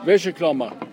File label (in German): Dialekte